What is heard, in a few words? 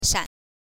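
"shan", Mandarin Chinese, neutral tone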